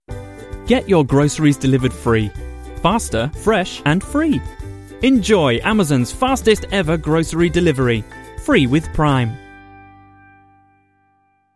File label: english british commercial